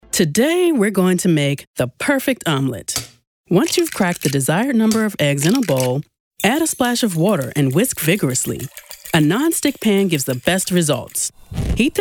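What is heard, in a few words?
narration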